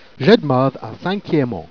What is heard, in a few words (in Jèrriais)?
"j'dmeuthe à St Cliément", Jèrriais